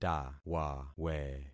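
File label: "tawawe", concatenated syllables; Affixing experiment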